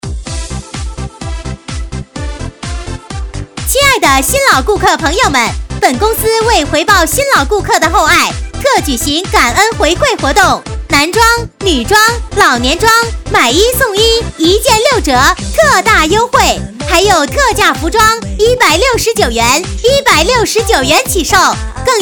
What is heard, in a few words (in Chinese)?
女 促销 服装感恩回馈, 国语女声配音